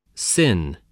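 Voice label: "sin", spoken word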